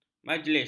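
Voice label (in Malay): "majlis", Malay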